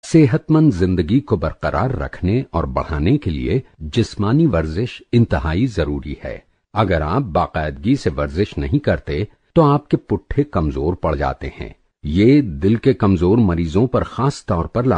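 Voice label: Urdu, male, e-learning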